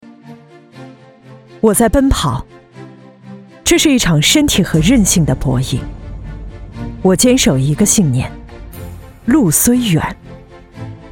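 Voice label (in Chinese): 宣传片人物成熟力量理财; 浦银理财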